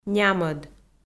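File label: "нямӧд", Komi, female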